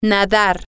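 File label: to swim, spanish, female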